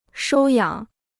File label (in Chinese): "收养", Chinese